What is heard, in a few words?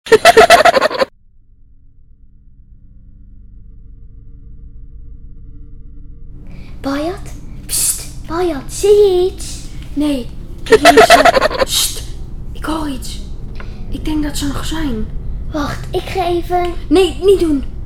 Voice-over Horror Nights